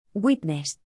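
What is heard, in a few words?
"witness", English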